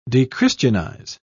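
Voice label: "dechristianize", English, British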